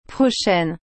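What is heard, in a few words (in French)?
"prochaine", French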